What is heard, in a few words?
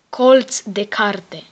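book corner, spoken Romanian; PictureDictionary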